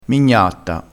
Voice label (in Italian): "mignatta", crespinese